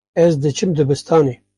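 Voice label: kurdish; mozilla voice; ez dicim dibistane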